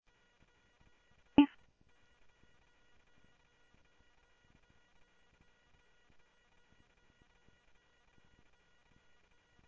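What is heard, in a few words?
Sheriff West County, scanner audio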